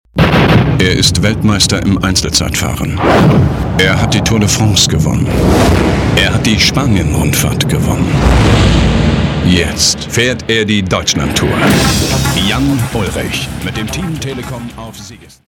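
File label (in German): deutsch, Telefonansagen männlich; Sprecher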